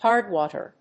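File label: "Hard Water", English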